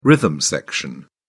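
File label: "rhythm section", British English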